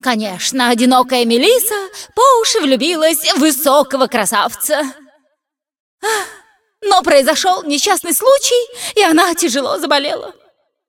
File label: cinematic voice, ru; lineage2